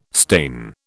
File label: "stain", British